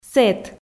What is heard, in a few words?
"сэт", Komi